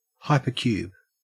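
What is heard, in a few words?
"hypercube", Australian English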